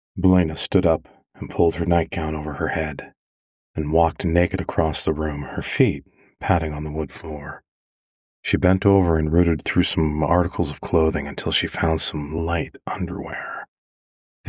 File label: Fantasy Narration